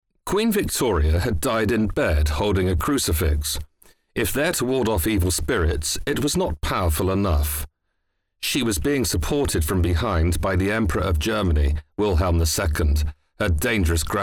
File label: male english audiobook; the making of modern britain